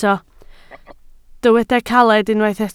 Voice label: "caled", Welsh

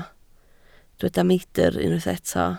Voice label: "meidr", Welsh

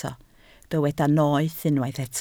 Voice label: "noeth", Welsh

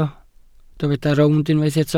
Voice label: "rownd", Welsh

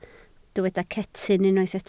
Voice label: "cetyn", Welsh

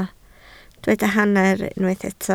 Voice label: "hanner", Welsh

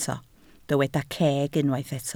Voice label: "ceg", Welsh

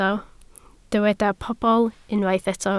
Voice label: "pobl", Welsh

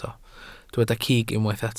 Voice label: "cig", welsh

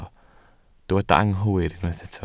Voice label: "anghywir", Welsh

